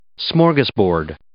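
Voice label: "smorgasbord", English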